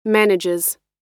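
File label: "manages", American English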